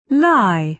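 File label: "lie", British English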